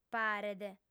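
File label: "paret" Sardinian, female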